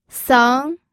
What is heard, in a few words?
"seng2", pinyin